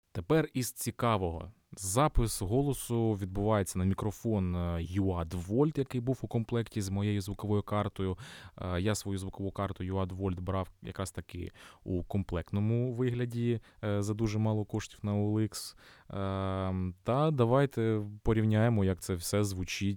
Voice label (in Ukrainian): uad volt mic test golosu; UAD Volt Mic - Тест Голосу